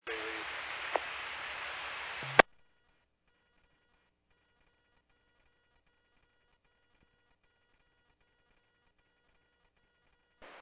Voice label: Medevac, scanner; NativeAirAmb